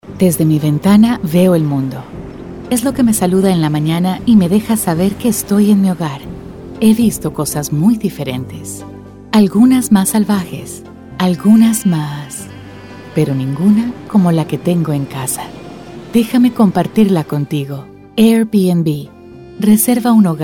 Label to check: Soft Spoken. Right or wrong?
Right